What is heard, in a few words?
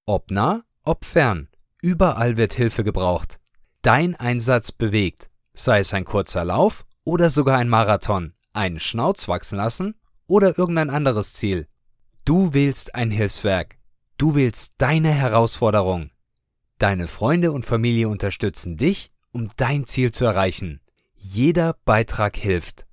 german promos